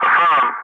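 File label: "affirm", English; Ka-50; Wingman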